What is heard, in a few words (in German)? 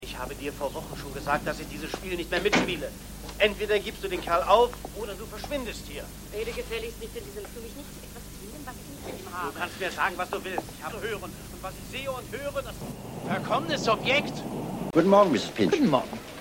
Mann TV, Frau TV, Pickup Fahrer; Adam Wilson II